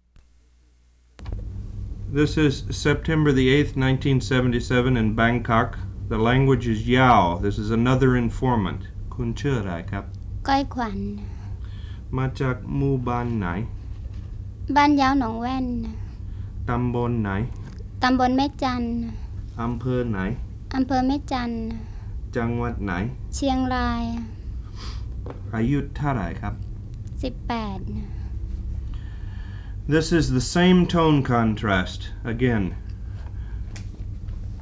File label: Yao tones